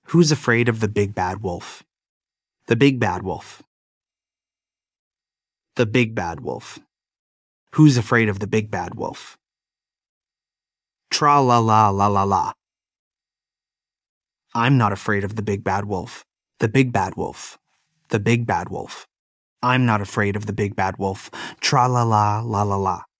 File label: male US; Big Bad Wolf